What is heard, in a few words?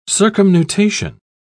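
"circumnutation", British English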